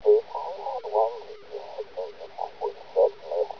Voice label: mumble

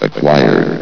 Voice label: "acquired", vox

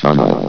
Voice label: "tunnel", vox

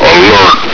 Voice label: "alert!", hgrunt

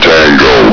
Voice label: "tango", hgrunt

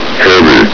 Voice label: "heavy", hgrunt

